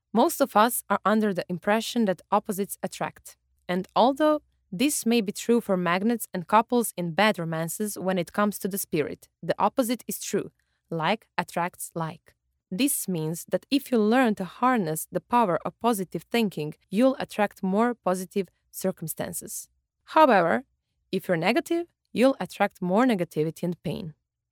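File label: Montenegrin, female, voice over; montenegro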